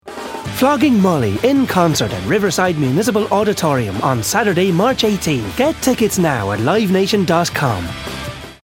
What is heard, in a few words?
irish promo